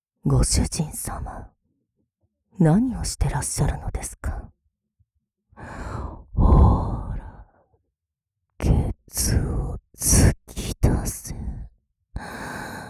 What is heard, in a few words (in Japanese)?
フリートーク; セクサロイド; 密着事務的囁き